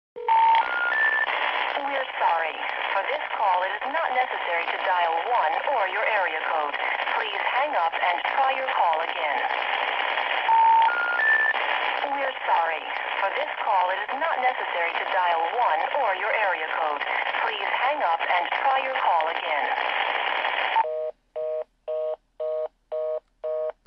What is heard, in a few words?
do not dial 1 broken, Verizon POTS